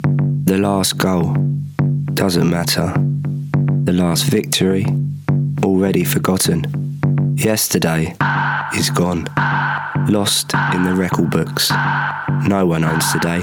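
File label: English UK male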